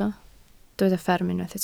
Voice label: "fferm", Welsh

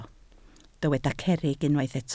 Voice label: "cerrig", Welsh

